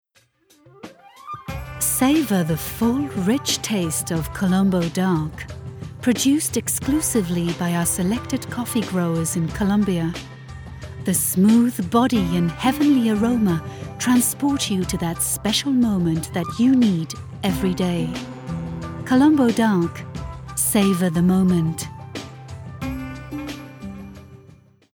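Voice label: Norwegian soft sell english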